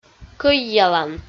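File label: "кӧйялан", Komi-Zyrian, female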